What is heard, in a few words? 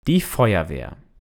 "die Feuerwehr", German